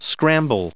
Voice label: "scramble", English

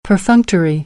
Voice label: "perfunctory", American English

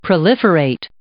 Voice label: "proliferate", English

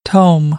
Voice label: "tome", American, English; oʊ - əʊ

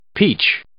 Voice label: "peach", English

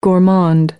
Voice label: "gourmand", American; AmericanBritish

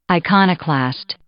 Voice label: "iconoclast", English

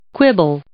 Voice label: "quibble", English

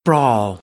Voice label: "brawl", American; ɑː - ɔː; English

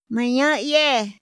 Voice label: "ma ñayë", Otomí; INPI; INALI

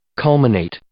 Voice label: "culminate", English

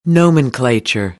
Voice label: "nomenclature", American English; ɚ - əɹ

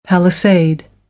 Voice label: "palisade", American English; ə - ɪ

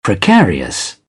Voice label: "precarious", British English

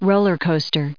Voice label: "roller coaster", English; noun

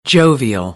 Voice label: "jovial", American; oʊ - əʊ

